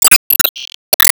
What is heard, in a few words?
Emo Praise